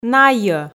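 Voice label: "найӧ", Komi, female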